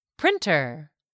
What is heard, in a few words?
"printer", English; 3000 words